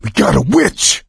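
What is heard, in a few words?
warn witch, voice, male; Left 4 Dead 2; coach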